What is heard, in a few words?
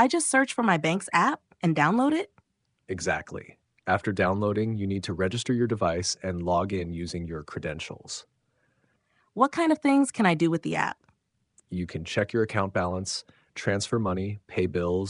Mobile Banking Dialogue